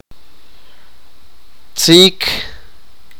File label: elder sister, sipakapense